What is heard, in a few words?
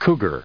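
"cougar", US English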